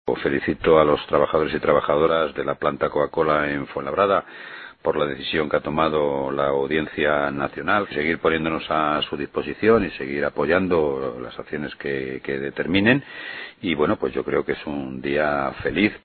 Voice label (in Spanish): noticias